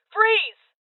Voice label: Voices Freeze Female; rebel cops 2019 gamerip